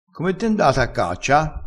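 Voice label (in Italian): "impenna", crespinese dialettale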